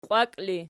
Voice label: "qiwaki", Archi

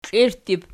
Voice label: "klirttib", Archi

